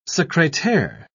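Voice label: "secretaire", British English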